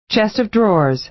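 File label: "chest of drawers", American English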